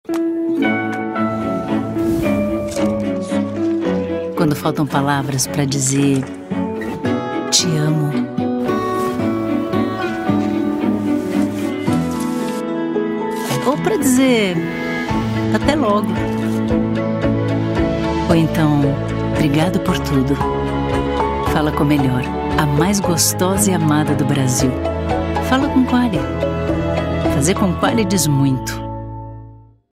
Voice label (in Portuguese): Publicidade; Qualy2020